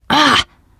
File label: reaction negative special, teen girl